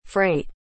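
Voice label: "freight", English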